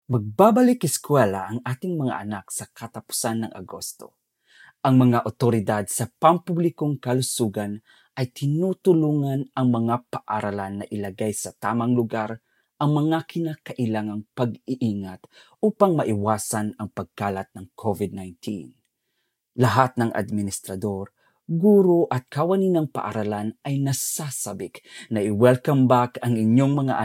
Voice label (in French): Message Tagalog